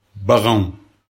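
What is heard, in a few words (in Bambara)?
"baganw", Bambara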